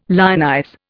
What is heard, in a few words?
"lionize", Indian